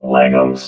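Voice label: "leggums", robot voice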